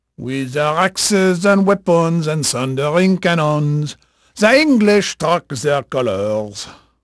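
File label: French male, voice; Pirates Of The Caribbean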